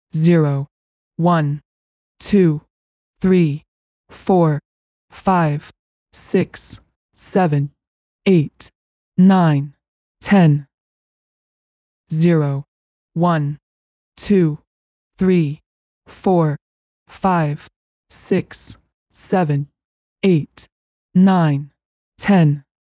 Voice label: Slightly Computery Female Countup From 0 To 10

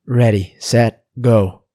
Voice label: Ready Set Go Male

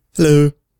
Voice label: "Hello", Male

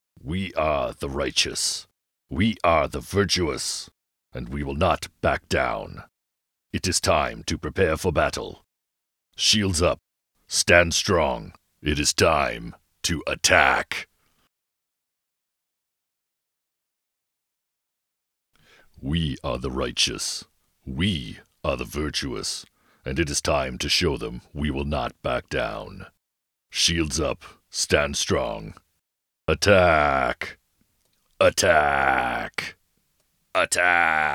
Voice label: Medieval speech

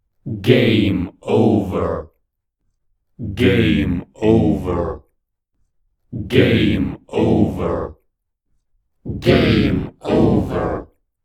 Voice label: Cyborg Game Over

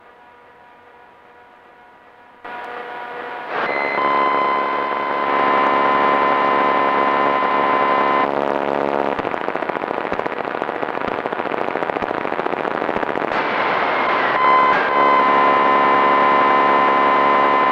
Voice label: Computer Speaking

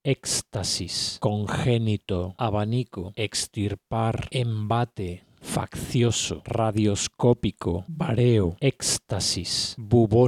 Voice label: Random Spanish Words

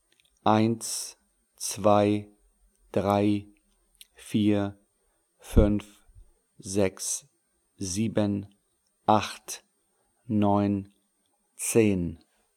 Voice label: Numbers German Male

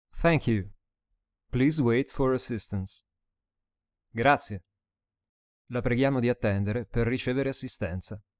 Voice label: Phone Messages English And Italian

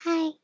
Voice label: Hi Cartoon Girl

